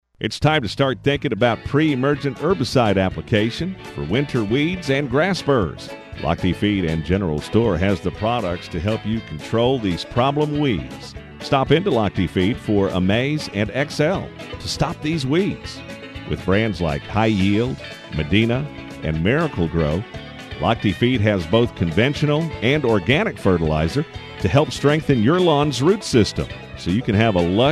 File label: garden radio ad